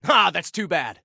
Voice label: Taunt Aww, Soldier Male